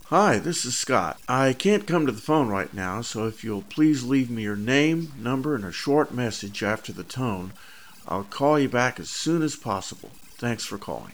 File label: Answering Machine